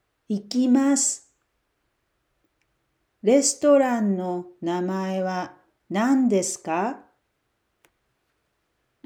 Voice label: japanese Dialogue; 7.0 Expanding Your Japanese Toolkit (2)